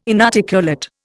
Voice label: "inarticulate", Indian

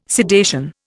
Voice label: "sedation", Indian

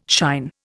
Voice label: "shine", Indian

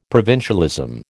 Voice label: "provincialism", American

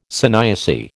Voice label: "sanyasi", American

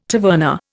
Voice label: "taverna", Indian

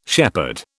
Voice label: "shepherd", British

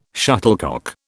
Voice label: "shuttlecock", British

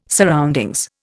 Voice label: "surroundings", Indian

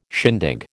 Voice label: "shindig", American

